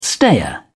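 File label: "stayer", British English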